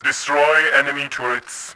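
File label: attack turrets, voice, Bot; Tribes2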